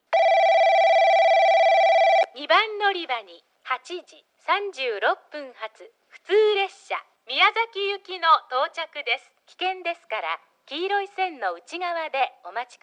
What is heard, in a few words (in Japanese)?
2番のりば接近放送（宮崎行き）, 女声放送; 伊集院